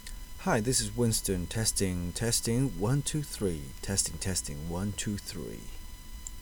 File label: test usb mic